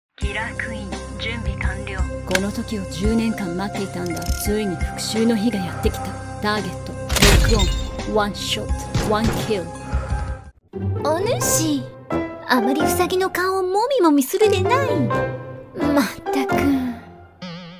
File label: Game Characters Japanese